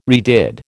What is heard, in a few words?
"redid", American